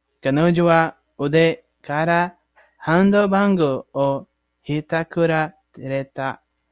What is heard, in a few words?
japan